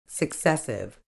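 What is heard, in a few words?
"successive", British English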